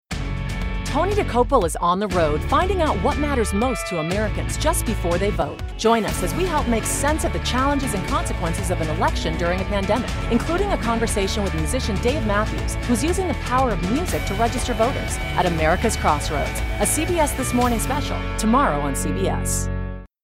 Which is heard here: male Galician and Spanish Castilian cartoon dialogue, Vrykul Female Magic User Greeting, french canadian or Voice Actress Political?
Voice Actress Political